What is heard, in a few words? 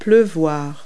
"rain", verb; Multi-Lingual Talking Picture Dictionary